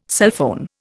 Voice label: "sulphone", Indian

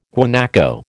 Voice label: "guanaco", American

